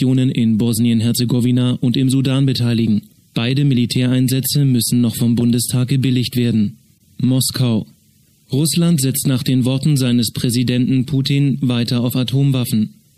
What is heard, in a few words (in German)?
Nachrichten